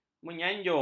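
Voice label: "menyanjung", Malay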